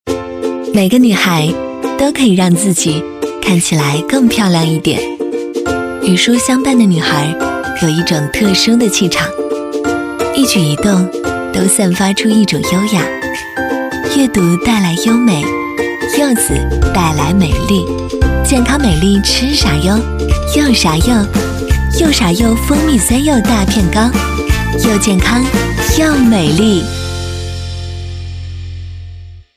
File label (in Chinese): 广告 食品饮料 蜂蜜酸柚大片糕 甜美; 女; 柚啥哟